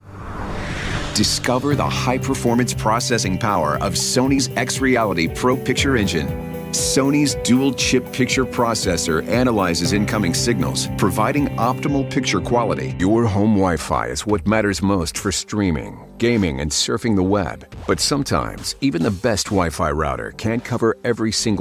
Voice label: english american others